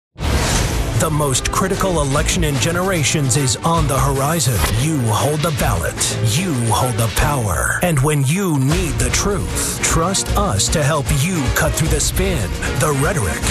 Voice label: Voice NEWS TALK